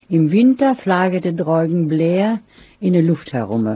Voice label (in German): ostfalisch